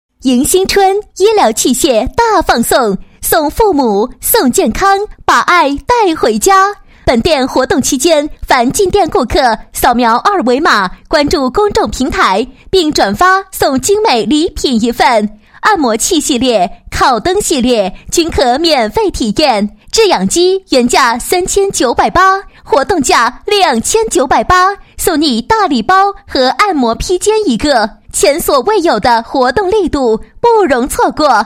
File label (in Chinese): 女 春节广告; 迎新春医疗器械大放送